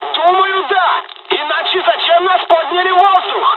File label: dialog, dialogs russian; War Thunder